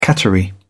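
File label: "cattery", British English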